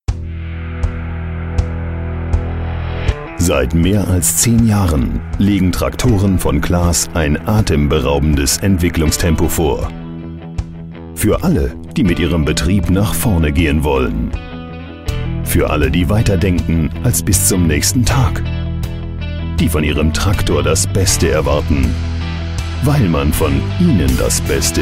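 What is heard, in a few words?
male German commercial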